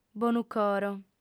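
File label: "bonucoro", female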